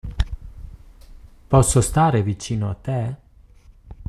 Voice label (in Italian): italiano